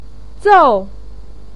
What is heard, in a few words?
"zòu", voice pinyin